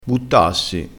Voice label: "buttassi", crespinese

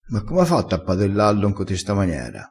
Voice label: "padella", crespinese

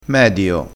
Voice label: "medio", crespinese

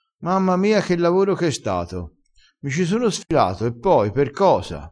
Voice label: "sfilassi", vocabolario dialettale

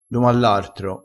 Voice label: "domallartro", vocabolario dialettale

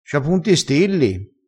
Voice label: "stilli", vocabolario dialettale crespinese

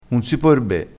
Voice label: "sape di foo", vocabolario dialettale, crespinese

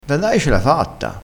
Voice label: "da dai", crespinese, vocabolario dialettale

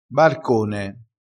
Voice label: "barcone", dialettale

